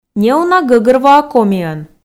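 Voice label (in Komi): неуна гӧгӧрвоа комиӧн, Komi, female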